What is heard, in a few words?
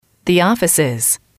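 "the offices", English, female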